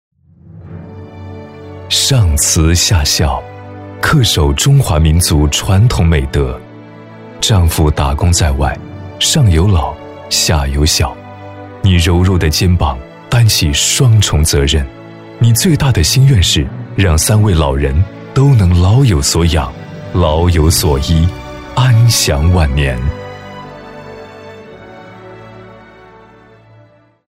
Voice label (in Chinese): 高端 男 大气 煽情 颁奖; 第六届宿城人颁奖